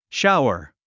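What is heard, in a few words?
"shower", English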